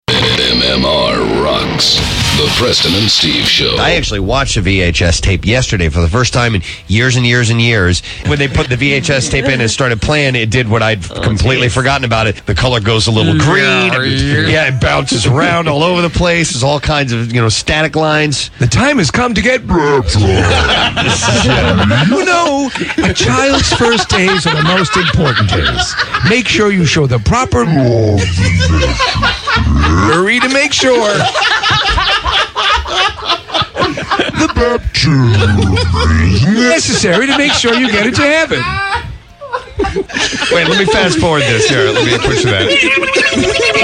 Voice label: PROMO; VCR REWIND